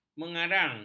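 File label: "mengarang", Malay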